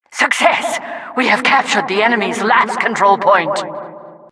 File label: announcer success captured last control